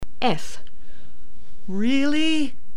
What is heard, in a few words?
emotion